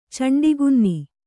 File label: "ಚಂಡಕನ್ನೆ", kannada